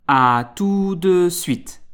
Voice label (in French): "à tout de suite", French